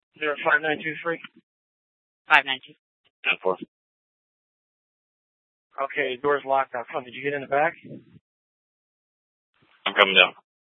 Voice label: Scottsdale PD Sth Dispatch, scanner

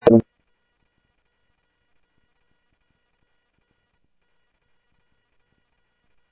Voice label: Info Sheriff Common, scanner audio

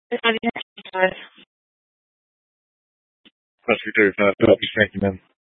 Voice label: Fire Dispatch; K8 - FDCOM 8 - South; B- Phx Fire and Public Works

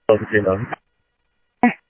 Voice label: Sheriff, scanner audio; South Scottsdale; MCSO - EAST Sheriff-EastCnty

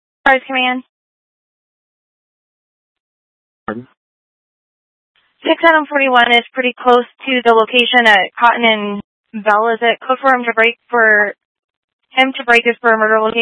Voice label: Mutual Aid-Intersystem Interop B, scanner

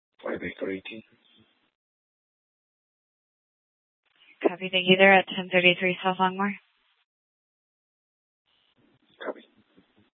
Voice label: Police Department, Dispatch

